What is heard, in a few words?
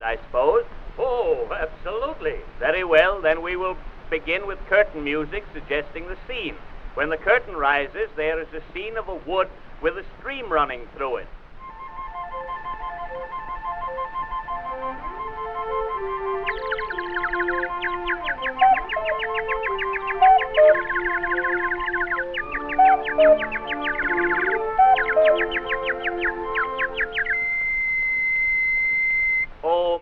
monologue, vaudevil; drama; rehearsing the orchestra; vaudeville special